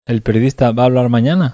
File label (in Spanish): acento oracional interrogativa foco sujeto bizkaia, masculino; spansk; fonetica